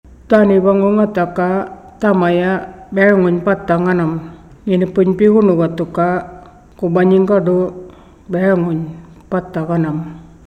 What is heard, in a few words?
Murrinhpatha